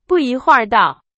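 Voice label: Chinese, example sentence; hsk2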